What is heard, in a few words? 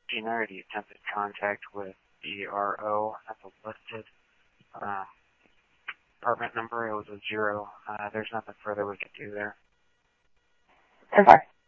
Police, scanner; ScottsdalePolice; H-Scottsdale; SCTSDLE PD - PD2